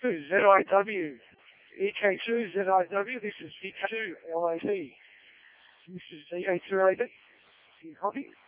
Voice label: decoded speech, parrot